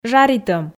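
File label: "жаритӧм", Komi, female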